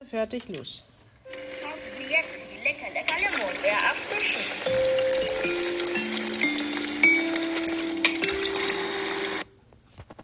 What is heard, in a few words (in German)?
Radio Werbung